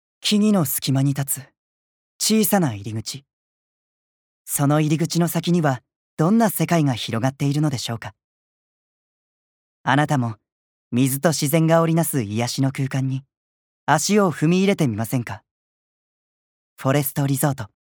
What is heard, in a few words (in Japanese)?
ナレーション